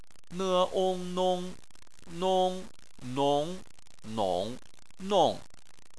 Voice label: "nong", Chinese

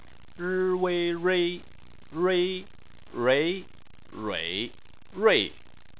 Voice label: "rui", Chinese

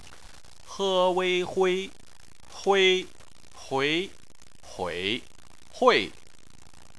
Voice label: "hui", Chinese

